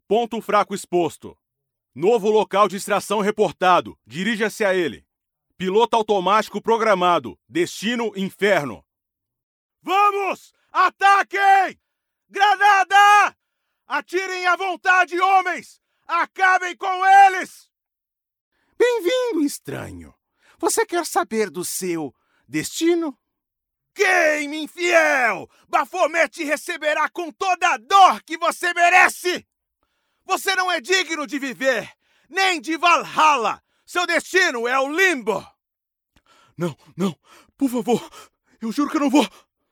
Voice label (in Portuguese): Dublagem Games Caricato Voz Original